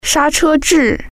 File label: "brakes", Chinese